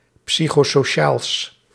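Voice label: "psychosociaals", Dutch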